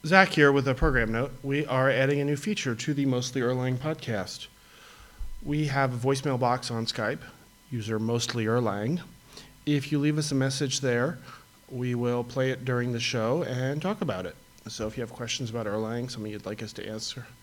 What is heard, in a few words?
Voice Mail